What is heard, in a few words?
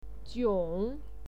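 "jiong", Mandarin Chinese pinyin, third tone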